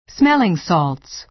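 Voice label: "smelling salts", American English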